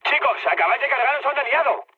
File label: player killed ally, mood med, speech; War Thunder